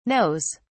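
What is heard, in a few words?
"knows", English, British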